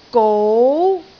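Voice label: "gou2", Cantonese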